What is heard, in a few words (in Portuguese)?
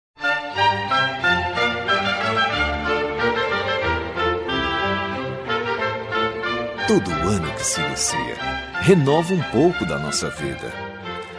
Feliz Ano Novo pra Filhoa, M